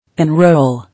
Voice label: "Enroll", English